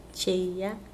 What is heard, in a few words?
"chair", Mokilese